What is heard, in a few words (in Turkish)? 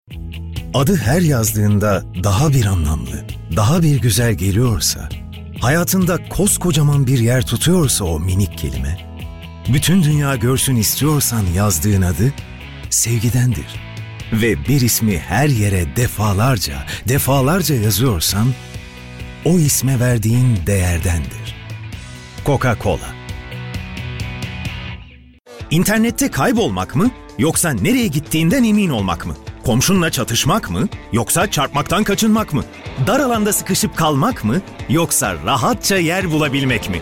türkçe seslendirme